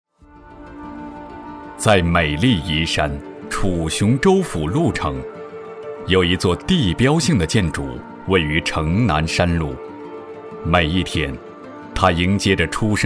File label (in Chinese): 男 专题